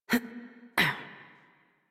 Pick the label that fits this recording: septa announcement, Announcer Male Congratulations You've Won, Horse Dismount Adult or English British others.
Horse Dismount Adult